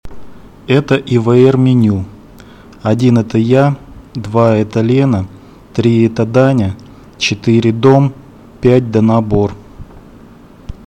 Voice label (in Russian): ivr, Это ивр меню. Один это я,